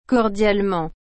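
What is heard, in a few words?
"Cordialement", French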